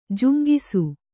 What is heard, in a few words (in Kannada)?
"ಜುಂಗಿಸು", kannada